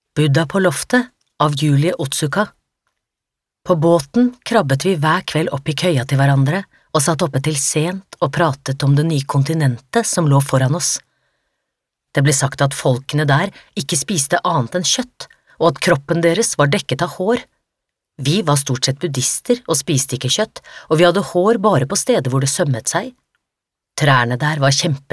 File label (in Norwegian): no lydbok